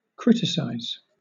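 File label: "criticize", British English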